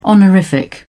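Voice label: "honorific", British English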